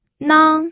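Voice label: "nang", first tone, pinyin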